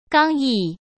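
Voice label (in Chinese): "刚毅", Mandarin Chinese; chinese-flashcards; hsk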